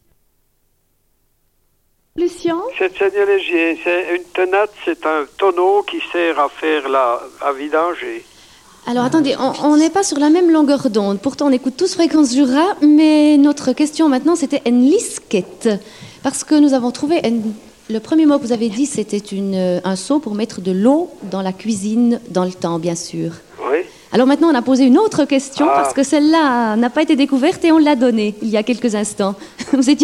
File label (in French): Patois Saignelegier